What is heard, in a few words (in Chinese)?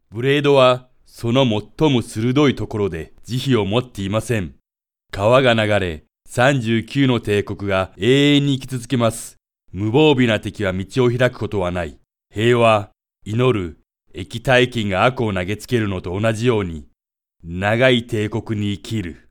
日语男 诗歌